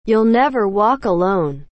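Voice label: "You'll never walk alone", English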